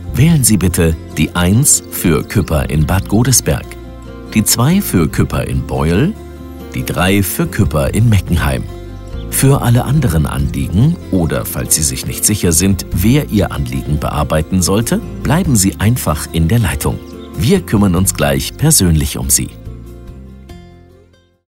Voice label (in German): IVR, telefonansagen sanitaer heizung; Sanitär & Heizung (SHK); Bad, Heizung und Energieberatung; Küpper Sanitär